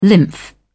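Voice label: "lymph", British English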